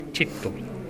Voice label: "chetto", minamiyamagata